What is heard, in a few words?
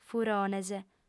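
"furones", female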